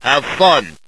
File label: vox emote f; smite; chronos